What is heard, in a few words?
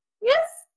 VoiceActing es F